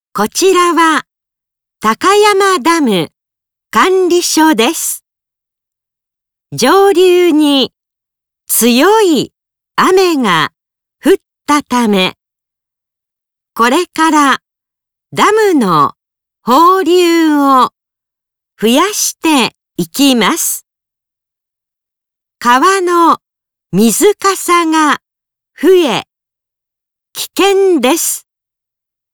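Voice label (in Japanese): onsei annai, discharge alarm; takayama; kizugawa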